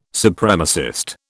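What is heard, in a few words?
"Supremacist", British English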